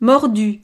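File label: "mordu", French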